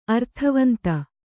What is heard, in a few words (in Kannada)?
"ಅರ್ಥವಂತ", Kannada